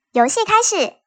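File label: Game Start, Chinese; 人聲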